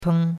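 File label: "teng1", Mandarin Chinese; Cours-de-Chinois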